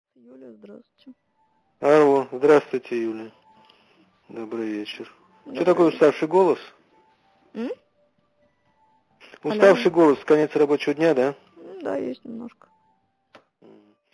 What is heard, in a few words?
tired voice